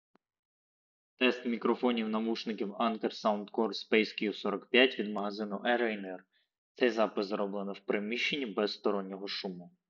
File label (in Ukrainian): Anker SoundCore Space Q45 в приміщенні